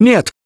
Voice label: grabbed by smoker, russian, Survivor Voice